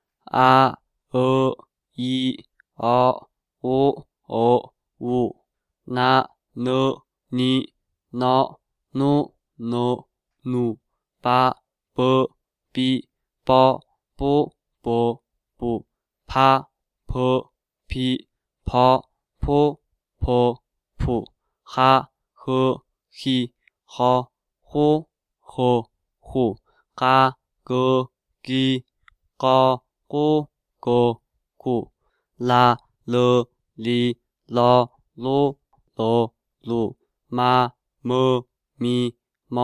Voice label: sounds all male, mongolian